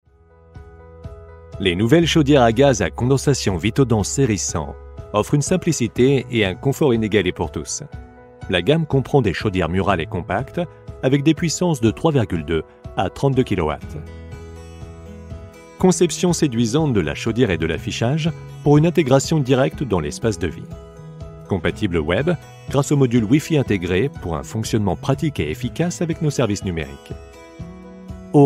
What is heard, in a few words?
explainer; viessman vitodens 100; French voice-over